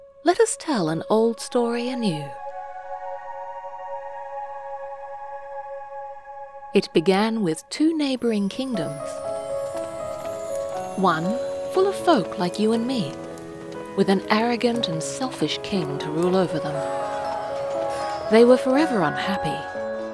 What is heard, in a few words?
Narrator; LotR Malificent style VO